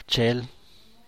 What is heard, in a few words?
"bchel", San Mateo Macuilxochitl Zapotec; find, discover; encontrar, descubrir